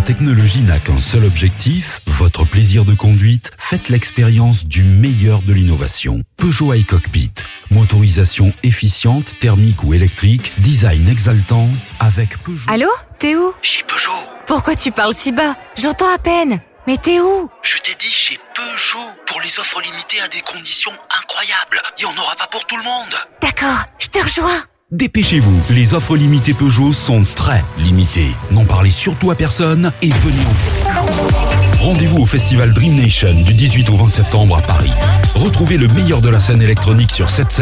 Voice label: VO Voix H

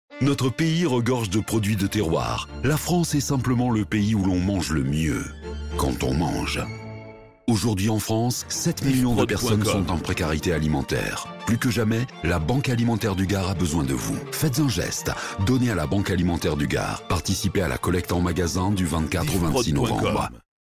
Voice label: Spot Banque Alimentaire; Gard

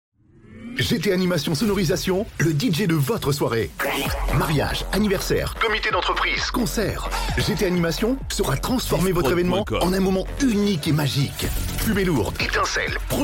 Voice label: Spot Dj Evenementiel; GT Animation

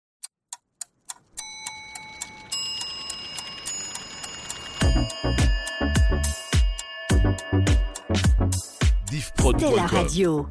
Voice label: Radio Top H Base, habillage

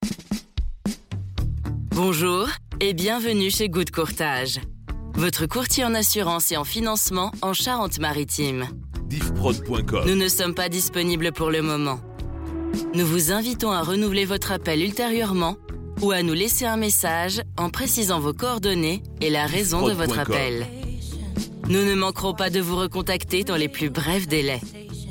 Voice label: Ligne Occupee, telephonie svi; Good Courtage

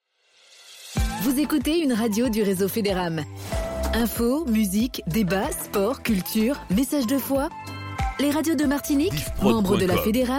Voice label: Spot Parle et Chante, publicite; La Federam

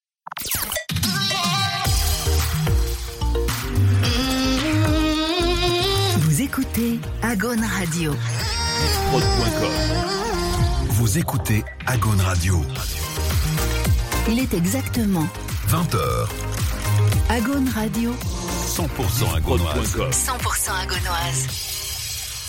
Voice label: Radio Top H; Agaune

